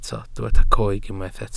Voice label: "coeg", Welsh